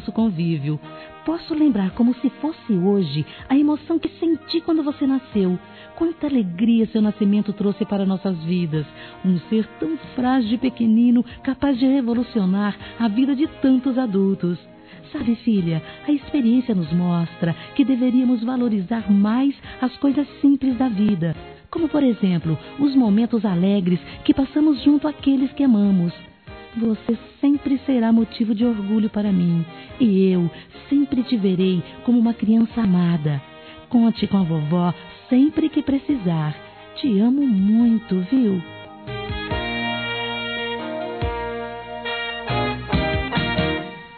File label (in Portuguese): ANIVERSÁRIO NETA F, Telemensagens, Fem; Aniversários; Aniv Familiar